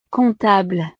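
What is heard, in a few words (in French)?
"comptable", French; profesiones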